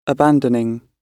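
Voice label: "abandoning", British English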